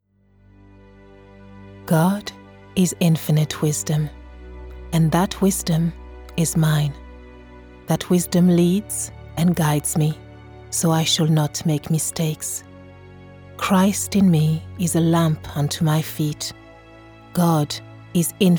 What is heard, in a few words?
female french english with french accent religious literature